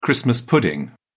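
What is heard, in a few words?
"christmas pudding", British English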